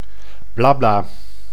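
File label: "blabla", Dutch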